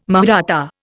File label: "Mahratta", Indian